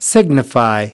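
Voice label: "Signify", American English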